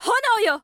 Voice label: witch special